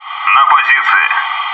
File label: water, bot